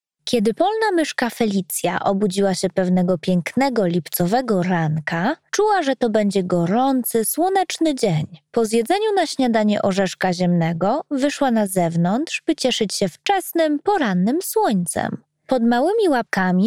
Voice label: female polish voice over character